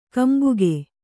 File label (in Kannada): "ಕಂಬಿಗ", Kannada